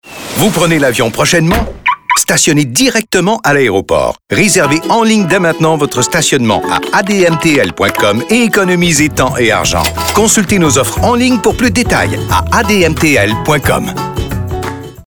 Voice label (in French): Publicite, Aeroport de Montreal